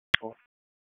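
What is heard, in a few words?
Dispatch, p25; WSO DISP 1; wake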